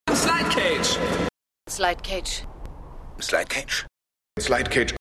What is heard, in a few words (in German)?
deutsch